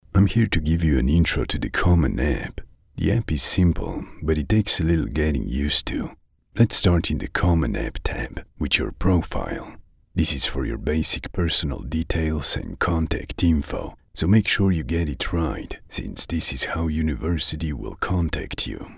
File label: University Application Tutorial